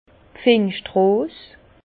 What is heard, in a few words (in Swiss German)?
"pfingst rose", Bas Rhin; vin; pivoine